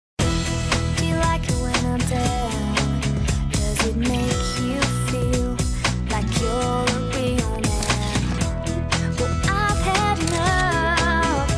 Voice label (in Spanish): Comercial (Japones); FILA